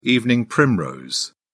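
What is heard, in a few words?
"evening primrose", British English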